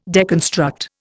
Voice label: "deconstruct", Indian